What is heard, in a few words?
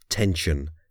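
"tension", English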